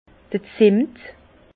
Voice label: "zemmet", Bas Rhin; vin; cannelle

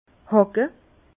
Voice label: "hacke", Bas Rhin; vin; piocher

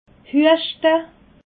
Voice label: "hueschte", alsace

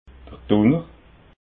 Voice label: "dunner", alsace

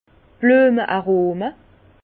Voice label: "blueme", Bas Rhin; vin; arômes de fleurs

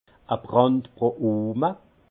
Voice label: "brandproohme", alsace; vin

